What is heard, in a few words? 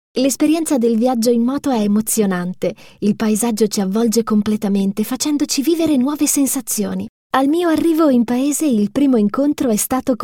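IT F narration, Italian, female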